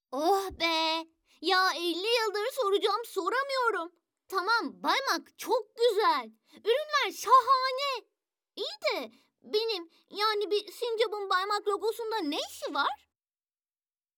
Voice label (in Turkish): animasyon